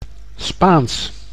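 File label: "Spaans", Dutch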